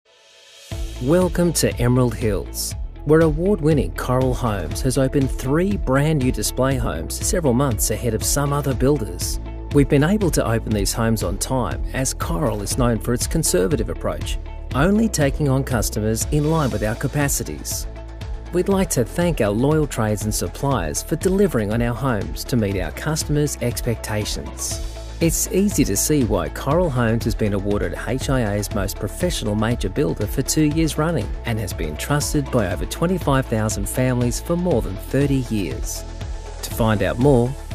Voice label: Promotional; Coral Homes